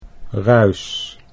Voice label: "ruis", Dutch

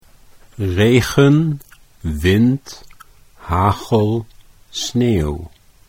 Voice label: "regen wind", Dutch

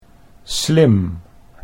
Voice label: "slim", Dutch

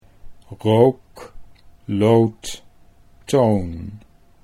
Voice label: rook loot, Dutch

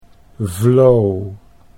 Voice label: "vlo", Dutch

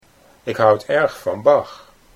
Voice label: ik houd erg van Bach, Dutch

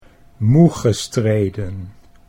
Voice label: "moegestreden", Dutch

